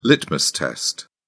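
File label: "litmus test", British English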